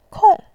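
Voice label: "kong4", Mandarin Chinese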